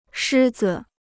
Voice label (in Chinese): "狮子", Chinese